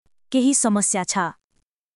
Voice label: Nepali, basic phrases

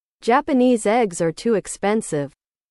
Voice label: english

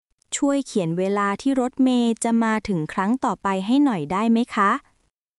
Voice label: Thai, basic thai phrases

